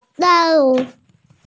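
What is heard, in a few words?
"tiao4wu3" Mandarin Chinese, female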